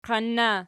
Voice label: "qoin", Archi